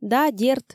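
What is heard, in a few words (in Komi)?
да, дерт, Komi, female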